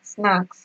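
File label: "snacks", Nigerian Pidgin, female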